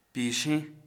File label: "oak", Kham